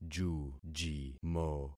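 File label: "jujimo", concatenated syllables; Affixing experiment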